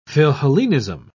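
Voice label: "philhellenism", British English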